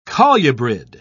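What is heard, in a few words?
"colubrid", British English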